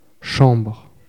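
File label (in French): "chambre" French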